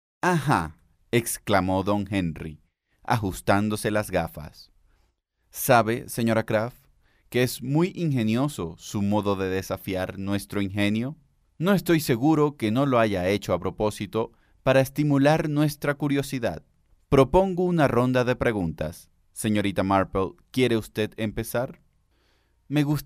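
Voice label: Spanish Latin American, male, spanish americas voice over talent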